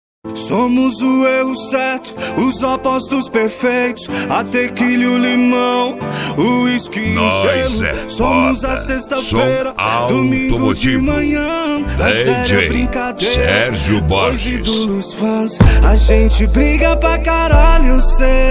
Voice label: rap; som automotivo